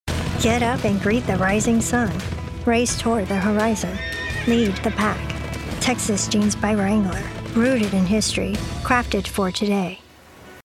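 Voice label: English, US, female, commercial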